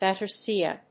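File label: "Battersea", English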